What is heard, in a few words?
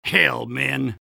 negative vocalization; soldier; garrysmod; tf2-content-gmodcontent